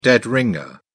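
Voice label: "dead ringer", en, British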